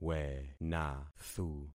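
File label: "wenathu", concatenated syllables; Affixing experiment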